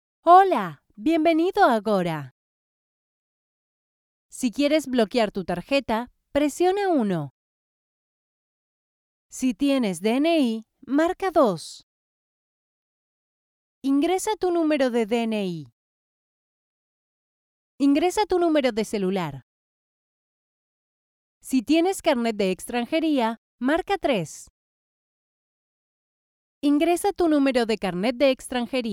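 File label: spanish latin american interactive voice response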